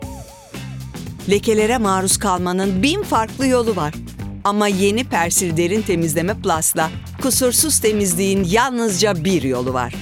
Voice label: enerjik esprili

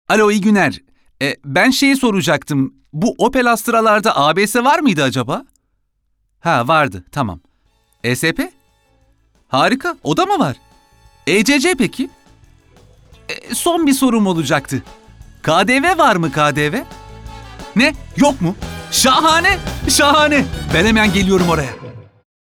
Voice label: neseli esprili, seslendirme

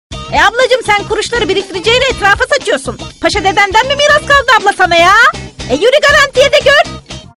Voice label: Lehce anima, sicak

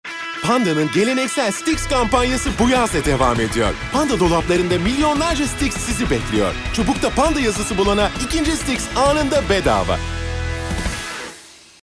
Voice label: kanpanya tempolu, seslendirme

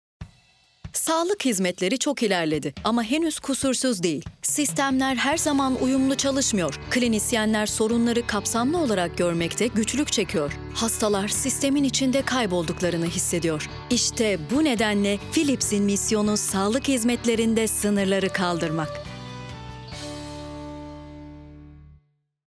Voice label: Tanitim

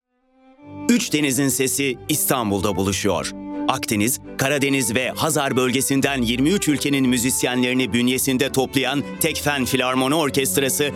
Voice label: enerjik tempolu, seslendirme

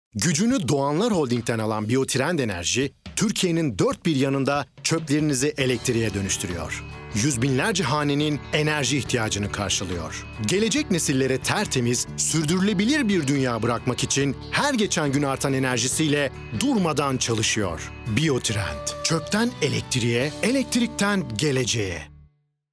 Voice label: Tanitim

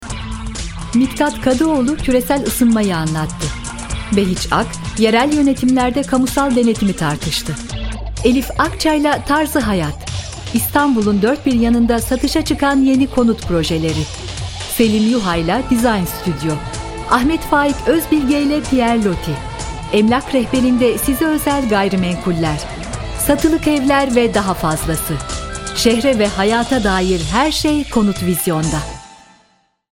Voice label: enerjik tempolu; seslendirme

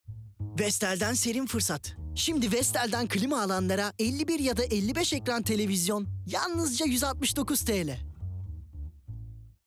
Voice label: kampanya tempolu, seslendirme

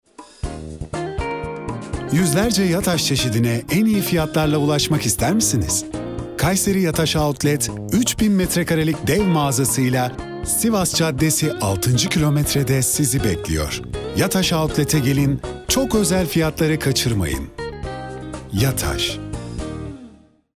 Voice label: kampanya, seslendirme

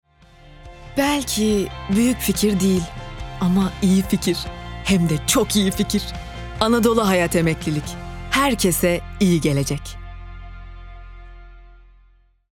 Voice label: duygusal motivasyon, seslendirme

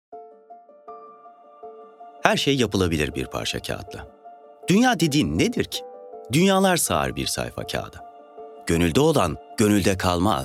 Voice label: duygusal motive, seslendirme

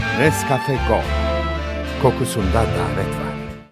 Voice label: sicak samimi, seslendirme

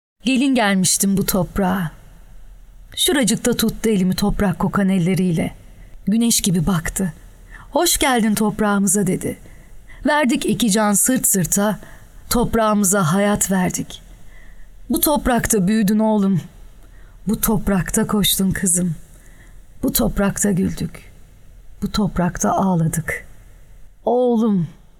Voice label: duygusal motive

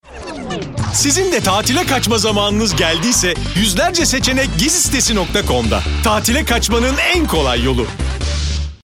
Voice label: enerjik esprili; seslendirme

